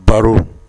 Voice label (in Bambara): "baro", Bambara